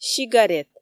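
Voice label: "сигарет", Komi, female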